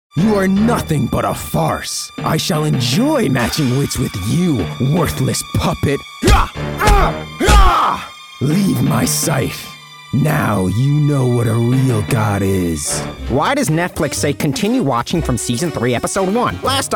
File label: V.O Character